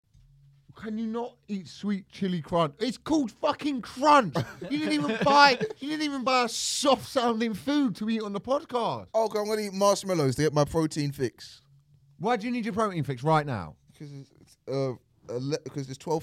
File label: Voiceover